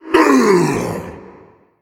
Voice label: Male Elemental Death

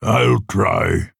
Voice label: Male Orc, Attack

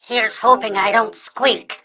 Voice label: Mech Greetings

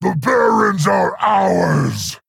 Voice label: Male Quilboar Play

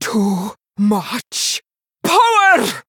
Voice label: Female Dwarf

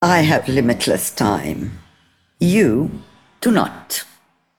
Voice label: Female Broker Idle

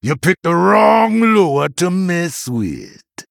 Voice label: Male Troll Attack

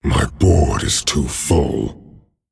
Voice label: Male Dragon ERROR FULL MINIONS

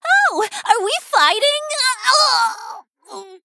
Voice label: Female Human Death

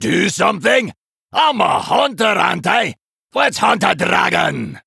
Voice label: Male Dwarf; Tavish; Boss Reveal

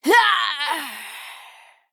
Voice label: Female Human Death

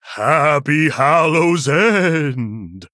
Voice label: Male Human HAPPY HALLOWEEN; Arthas

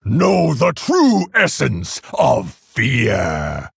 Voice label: Male Orc Start

